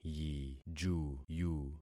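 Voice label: "yijuyu", concatenated syllables; Affixing experiment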